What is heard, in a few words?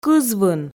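"кызвын", Komi, female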